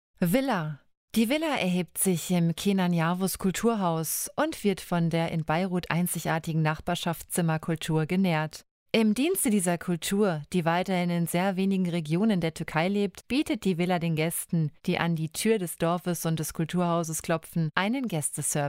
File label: deutsch